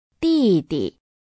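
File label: "didi", Chinese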